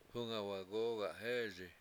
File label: how long is it, Washo; hUnga wagOOgahEESi